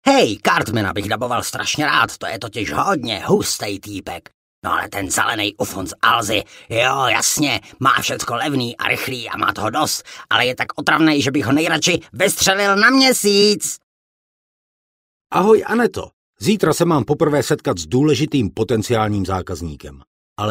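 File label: Czech Character, voice demos; GoLocalise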